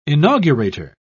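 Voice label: "inaugurator", British English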